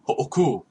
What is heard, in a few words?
stop, hawaiian